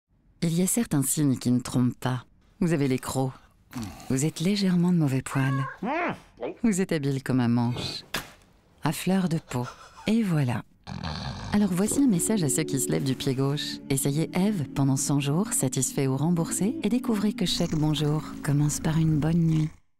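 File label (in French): pub matelas voix off, French voice over advert; eve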